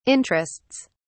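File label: "interests", English, British English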